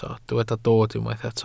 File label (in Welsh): "dod", Welsh